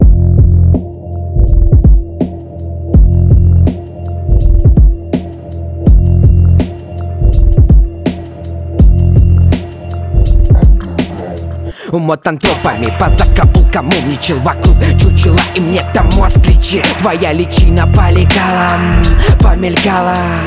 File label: Russian Hip-Hop-Rap